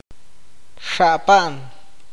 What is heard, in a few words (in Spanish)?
"vomitar", awakateko